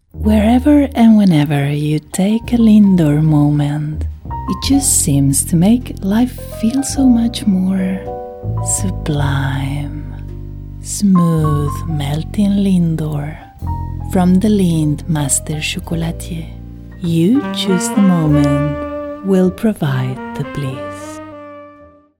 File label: English deep, Commercial Voiceover, Spanish Female Voiceover